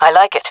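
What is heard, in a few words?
agree, jungle female; vo; agents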